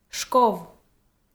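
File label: "шков", Komi, female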